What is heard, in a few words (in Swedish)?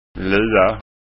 "lua", skanskaord